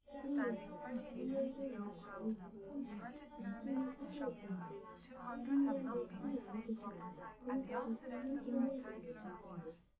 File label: female liverec; underdetermined; SiSEC11